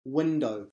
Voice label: "window", English